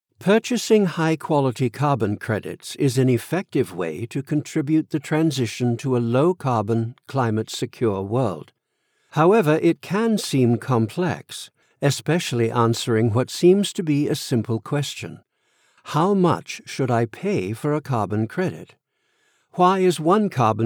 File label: BBC RP, Accents